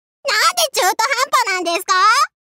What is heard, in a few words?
contest voice